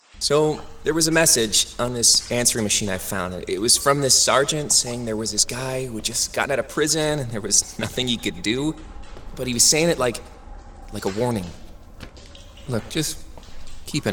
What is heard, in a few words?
men video games; Friendly, inviting, and conversational.